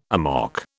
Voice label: "amok", British